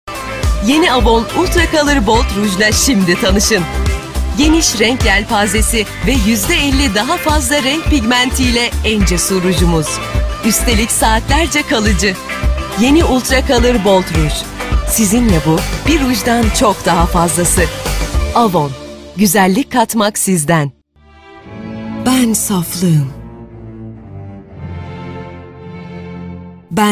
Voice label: Turkish female